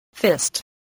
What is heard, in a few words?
"fist", spelling